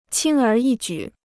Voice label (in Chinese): "轻而易举", Chinese